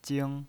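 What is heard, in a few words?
"jing", first tone, pinyin voice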